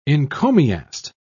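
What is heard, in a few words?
"encomiast", British English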